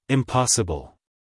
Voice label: "impossible", us male